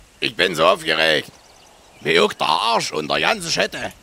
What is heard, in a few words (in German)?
aufgeregt; elsterglanz